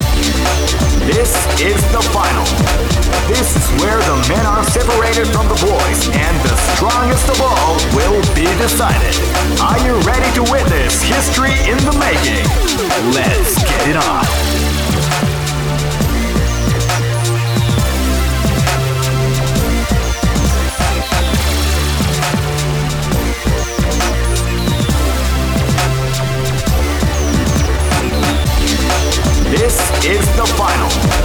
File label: Winner (Announcer); Capcom vs. SNK 2 Mark of the Millennium; PS2